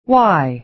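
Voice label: "why", American English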